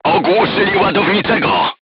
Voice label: voice message charger contused, crew tanks polish